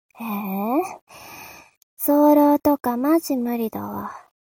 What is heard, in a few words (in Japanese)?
mob 女子 台詞